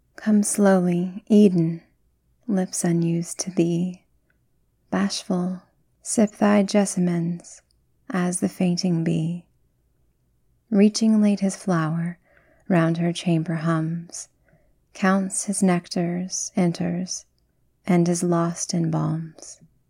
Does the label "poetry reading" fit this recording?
Yes